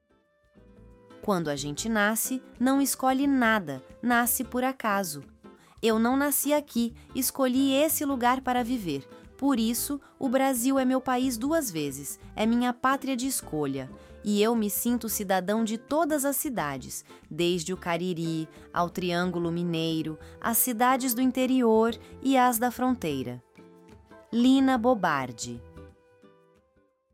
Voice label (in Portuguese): narracao; citacao quando a gente nasce luz